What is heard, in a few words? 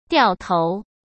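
"掉头", Mandarin Chinese; hsk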